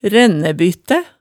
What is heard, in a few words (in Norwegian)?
"rænnæbyttæ", hemsedalsmaalet, tale